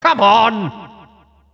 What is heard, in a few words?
enemy flag dropped, announcer; tf2cciv; open fortress